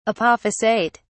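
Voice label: "apophysate", British English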